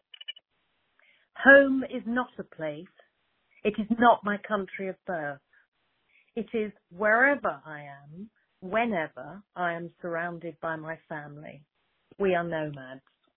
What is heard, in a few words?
Voicemail